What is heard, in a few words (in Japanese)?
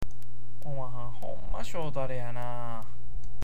"syoutare", awaji ben